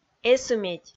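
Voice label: "эсыметь", Komi, female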